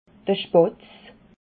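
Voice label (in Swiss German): "spatz", Alsatian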